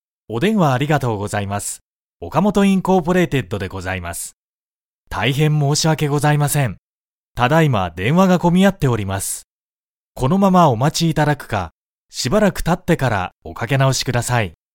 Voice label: japanese male